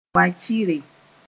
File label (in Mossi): "wakɩre", Mooré